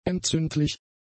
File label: "entzündlich", German; adjectives